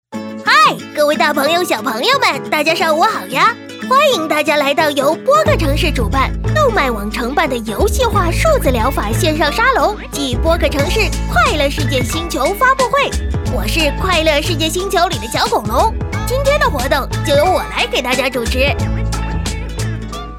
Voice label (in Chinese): 女 童声 活力男童; 波克城市